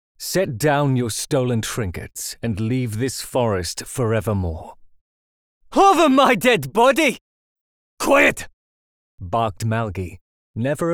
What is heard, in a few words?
Audiobook Demo Reel